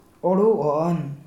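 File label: Gutob Adolescent male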